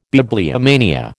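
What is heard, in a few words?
"bibliomania", American English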